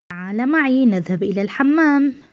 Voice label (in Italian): Arabo